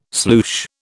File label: "sloosh", British